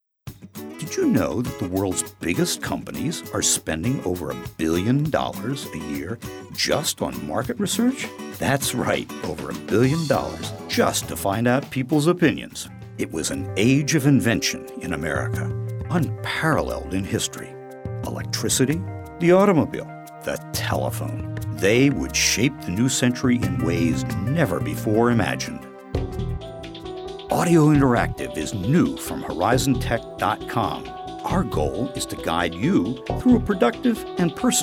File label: Narration Demo